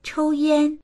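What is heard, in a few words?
"chou1yan1", Chinese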